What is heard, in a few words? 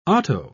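"otto", British English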